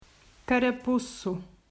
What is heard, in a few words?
"Carapuço", Portuguese